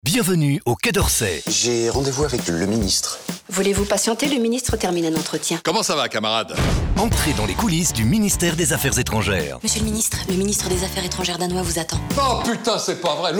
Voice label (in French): tonique souriant; quaidorsay